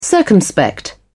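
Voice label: "circumspect", British English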